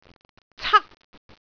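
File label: "cak1", Cantonese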